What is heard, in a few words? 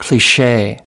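"cliche", English word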